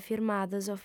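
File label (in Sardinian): "firmados", female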